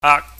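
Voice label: "aak", Cantonese, high level tone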